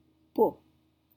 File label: "peau", French